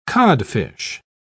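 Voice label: "codfish", English, British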